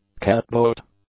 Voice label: "catboat", English

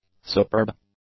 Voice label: "superb", English; adjectives

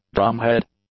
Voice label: "drumhead", English

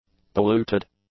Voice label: "polluted", english; adjectives

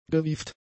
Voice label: "gewieft", German; adjectives

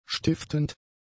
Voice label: "stiftend", German; adjectives

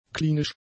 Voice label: "klinisch", German; adjectives

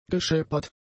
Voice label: "gescheppert", German; adjectives

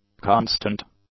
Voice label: "constant", English; adjectives

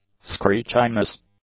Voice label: "screechiness", English; nouns

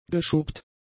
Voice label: "geschuppt", German; adjectives

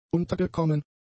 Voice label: "untergekommen", German; adjectives

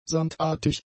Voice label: "samtartig", german; adjectives

